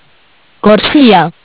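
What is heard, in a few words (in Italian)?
"Corsia", Italian; Bentel; BLE320; MESSAGGI VOCALI; Audio BGS220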